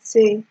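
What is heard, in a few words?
"sey", Nigerian Pidgin, female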